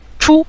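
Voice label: "chu", Mandarin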